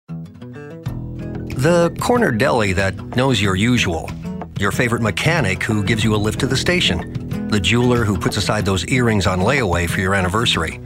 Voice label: Straighter Announce

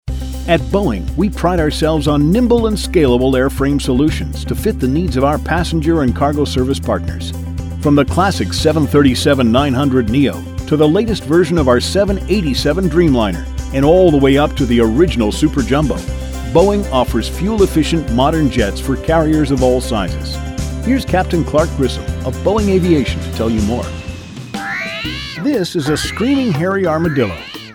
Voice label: Explainer

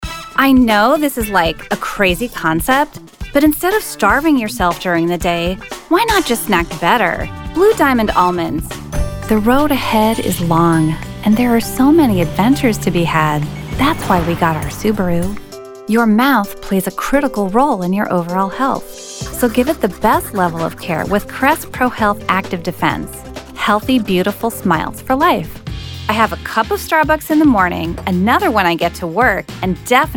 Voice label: commercial

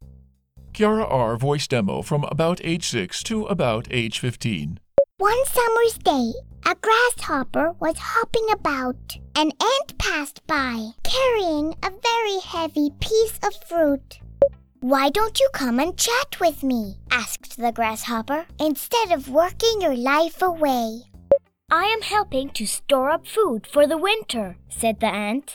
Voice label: age range (age 6 to 15)

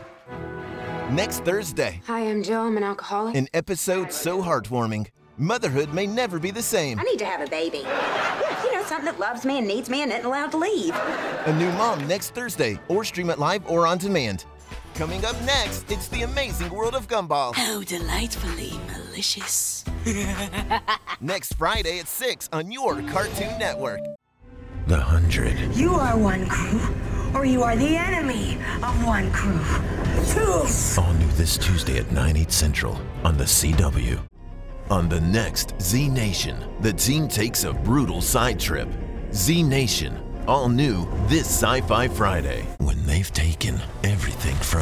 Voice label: Television Promo

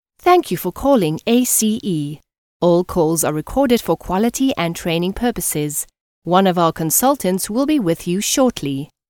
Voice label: IVR

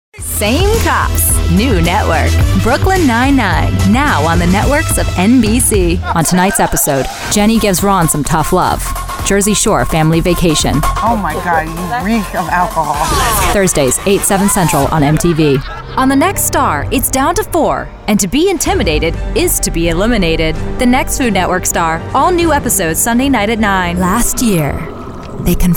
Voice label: Promo

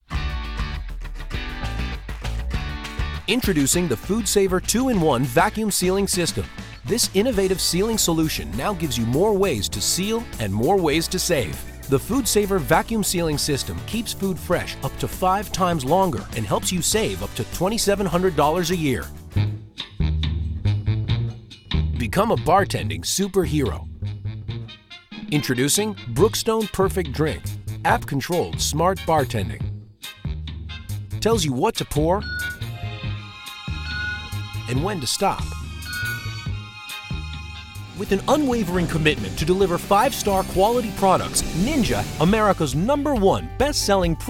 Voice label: Infomercial

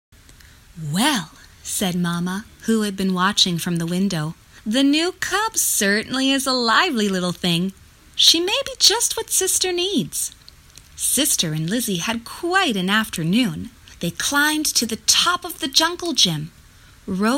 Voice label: Book Reading

